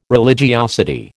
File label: "religiosity", American